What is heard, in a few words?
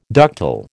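"ductile", American English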